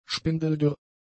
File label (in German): "spindeldürr", german; adjectives